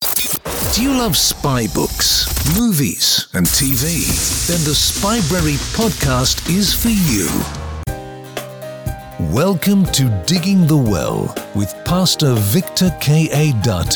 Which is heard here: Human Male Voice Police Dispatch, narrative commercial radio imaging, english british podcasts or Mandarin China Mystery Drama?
english british podcasts